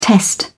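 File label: "test", English, British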